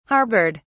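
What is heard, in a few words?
"harboured", British English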